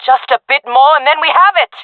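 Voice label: round winning, female voice; player vo; Counter Strike Model Pack; CS:GO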